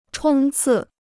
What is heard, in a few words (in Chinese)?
"冲刺", Chinese